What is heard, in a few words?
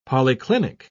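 "polyclinic", British English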